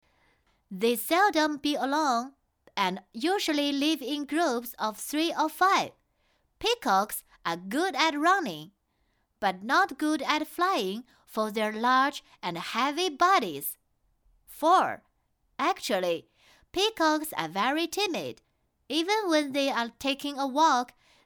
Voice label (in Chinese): 女 儿童英语; 拼图动物